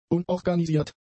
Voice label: "unorganisiert", German; adjectives